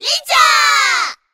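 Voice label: voice summon buddy, Japanese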